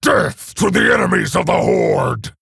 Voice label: Male Orc Bark; Captain Galvangar